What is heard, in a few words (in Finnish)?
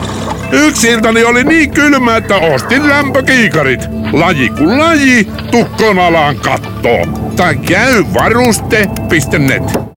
Radio; Varuste Net